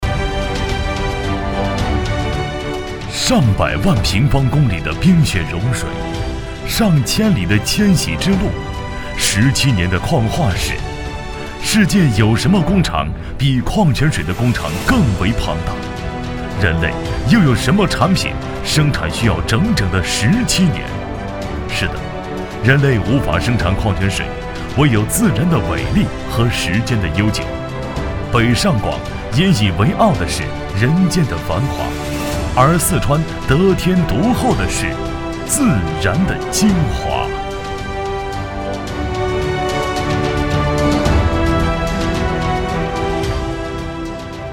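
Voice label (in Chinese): 宣传片矿泉水; 宣传片某矿泉水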